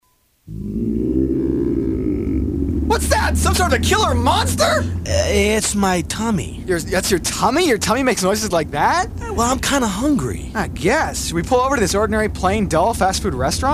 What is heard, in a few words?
radio spot; Chick-fil-A